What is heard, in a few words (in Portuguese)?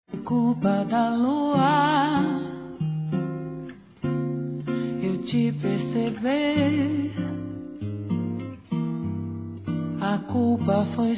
ANIV. ROMANTICO M